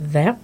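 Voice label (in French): "verte" French adjective, feminine singular; Colors and Adjectives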